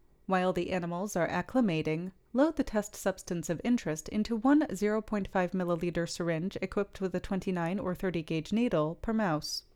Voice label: Voiceover